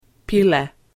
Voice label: "pile", Macedonian